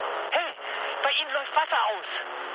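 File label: German damaged water mood med, speech; War Thunder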